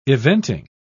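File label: "eventing", British English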